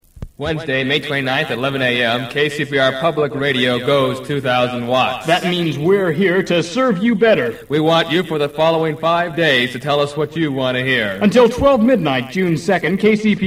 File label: promo